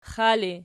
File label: xali nom, archi